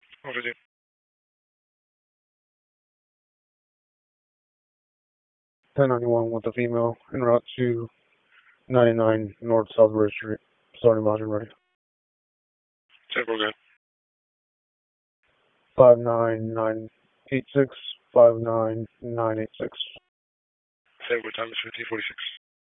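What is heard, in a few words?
Police Southwest District Dispatch, p25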